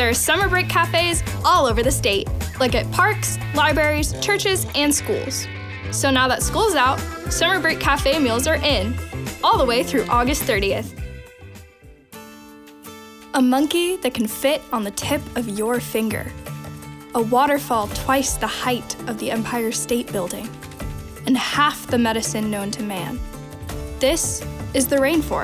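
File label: SHOWCASE, energetic, upbeat and friendly teen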